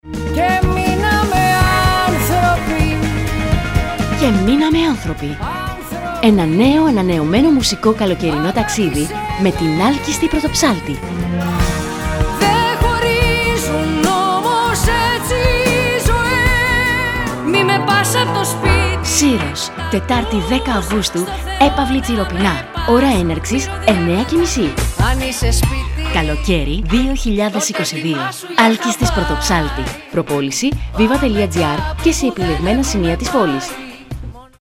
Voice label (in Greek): RADIO SPOT; ΣΥΡΟΣ; ΑΛΚΗΣΤΙΣ